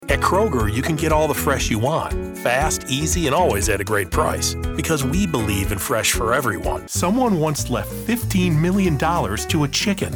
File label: men commercial